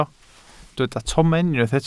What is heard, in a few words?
"tomen", Welsh